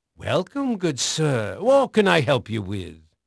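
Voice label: English male; Pirates Of The Caribbean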